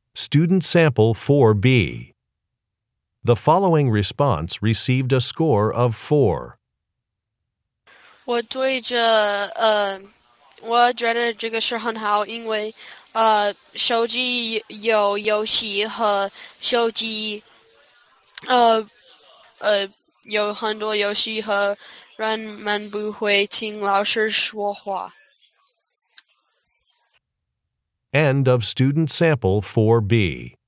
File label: chinese conversation